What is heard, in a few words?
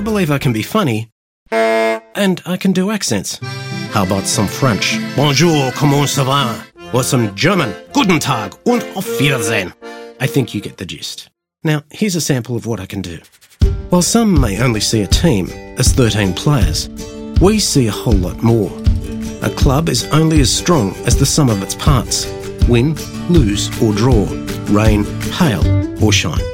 Voice Over